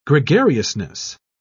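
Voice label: "gregariousness", British English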